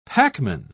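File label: "packman", British English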